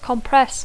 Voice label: "compress", English